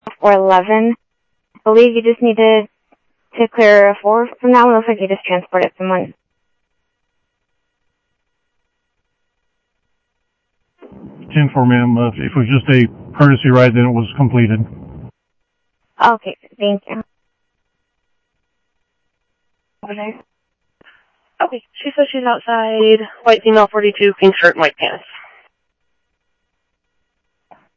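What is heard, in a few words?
Lakes Sheriff Lake Patrol, scanner audio trunk; MCSO Lakes 1; ThompsonPeakScot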